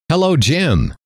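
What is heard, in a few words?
hello, male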